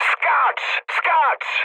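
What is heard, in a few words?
bailout, mood high, Polish speech; War Thunder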